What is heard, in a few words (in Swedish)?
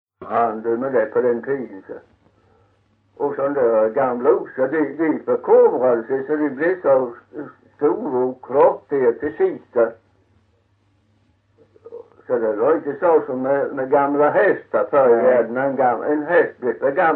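Kyrkhult Blekinge, dialekter; Folkminnen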